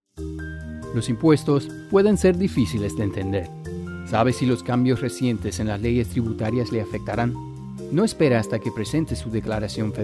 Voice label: PSA Spanish wih Music; Tax Reform; 55 Sec